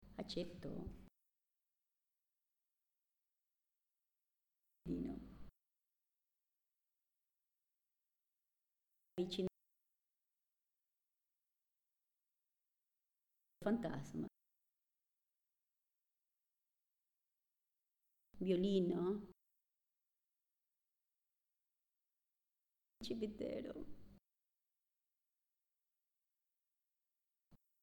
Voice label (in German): Zusammengefugte Worter Langsam Italienisch